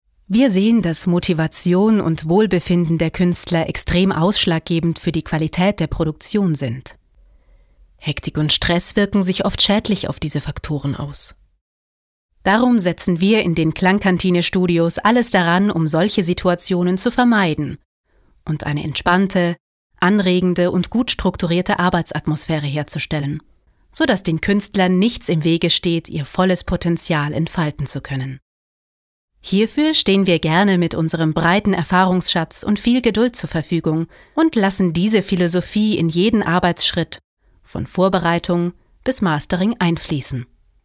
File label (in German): freundlich